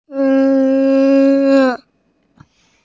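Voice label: "re4", Mandarin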